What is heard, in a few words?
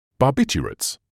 "barbiturates", medical english uk